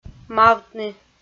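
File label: "мавтны", Komi, female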